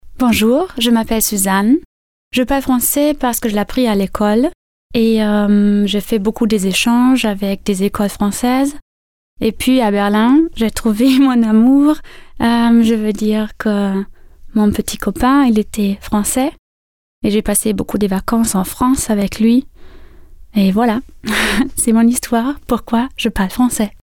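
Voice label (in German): französische Vorstellung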